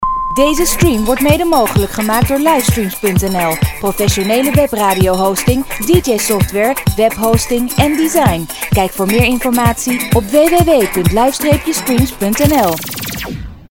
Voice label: commercial live stream promo